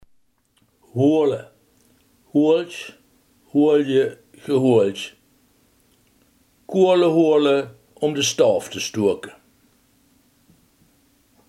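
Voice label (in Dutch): halen, Limburgse gesproken woordenboeken; halen, hoeale (hoealtj, hoealdje, gehoealdj); Koeale hoeale óm de staof te stoeake.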